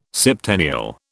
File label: "septennial", British